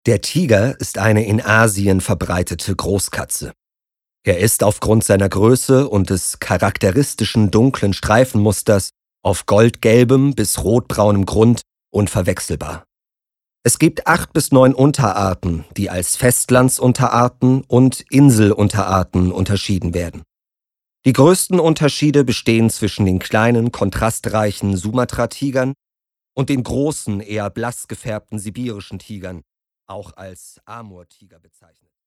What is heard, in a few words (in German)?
Doku